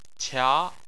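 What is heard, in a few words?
"qia", Chinese, tone 2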